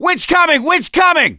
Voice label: witch chasing; gambler